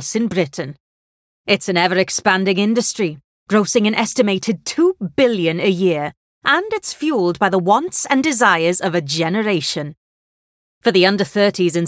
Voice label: English Welsh Accent